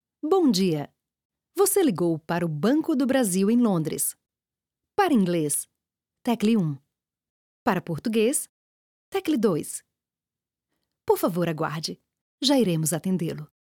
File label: female brazilian portuguese ivr